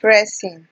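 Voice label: "pressing", Nigerian Pidgin, female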